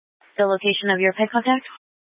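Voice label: Police Dispatch, Scanner audio; Police 1 Dispatch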